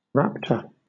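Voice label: "raptor", British English; pronunciations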